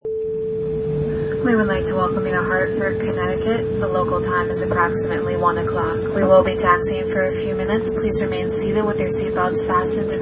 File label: Stewardess After Landing